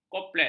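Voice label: "koplet", Malay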